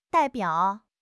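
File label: "dai4 biao3", Chinese; HSK4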